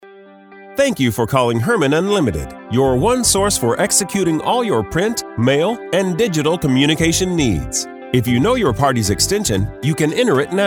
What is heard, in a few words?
Phone